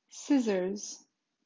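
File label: "Scissors", English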